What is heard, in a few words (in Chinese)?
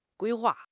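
"规划", Chinese; HSK6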